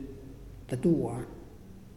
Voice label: Seed, Muyuw; Lae Unitech